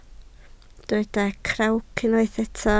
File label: "crawc", Welsh